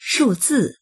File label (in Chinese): "shu4zi4", voices; shùzì; 数字